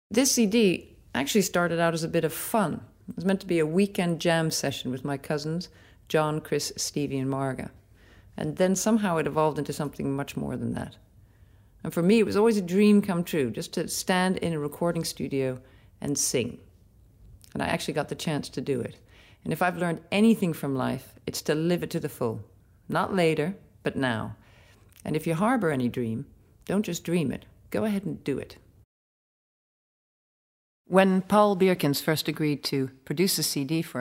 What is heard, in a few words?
Announcement